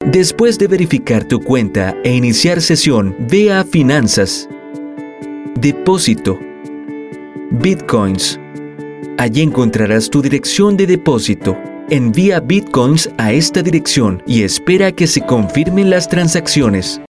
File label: Latin Spanish Chile; Bitcoins